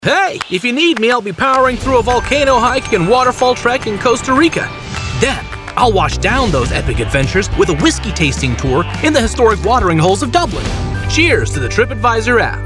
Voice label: Wacky Enthusiastic